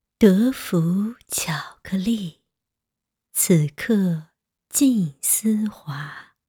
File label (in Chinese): 商业广告; 德芙